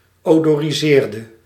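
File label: "odoriseerde", Dutch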